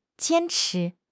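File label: "jian1 chi2", Chinese; 汉语口语新时代-初级下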